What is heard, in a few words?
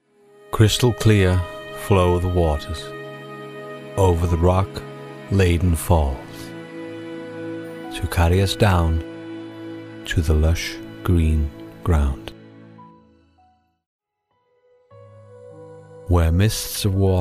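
english british male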